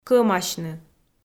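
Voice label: "кӧмасьны", Komi-Zyrian, female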